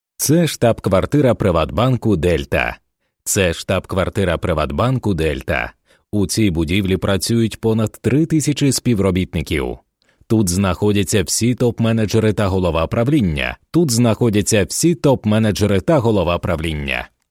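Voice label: Ukrainian, male, audiobook; voice-over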